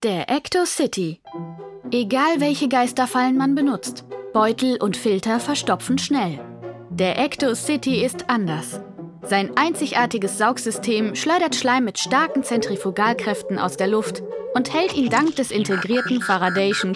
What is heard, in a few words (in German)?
Werbetext MIT MUSIK; Ecto-City